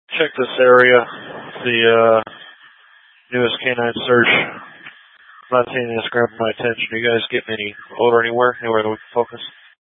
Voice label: Mutual Aid-Intersystem Interop B, scanner

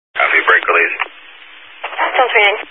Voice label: Highway Patrol, Phoenix Metro East Area, scanner

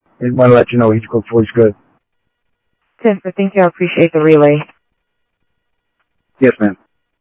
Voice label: Sheriff Central, scanner audio trunk

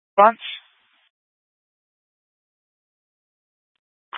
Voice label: Fire Dispatch

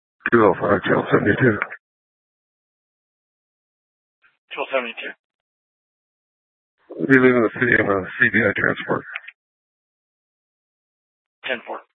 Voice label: Scottsdale PD Sth Dispatch, scanner; Regional Wireless Cooperative (RWC) - Simulcast H- Scottsdale

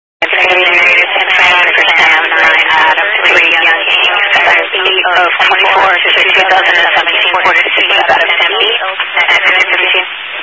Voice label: Highway Patrol, scanner; DPS; Dist 04 Yuma

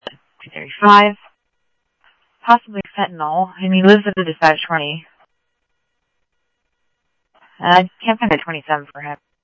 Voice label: Mesa Simulcast, MESA PD, scanner audio; 3 Red Mt; MesaPoliceDep

